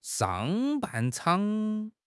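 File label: "shang4 ban4 chang3", Chinese; 汉语口语新时代-初级下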